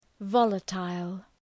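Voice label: "volatile", English word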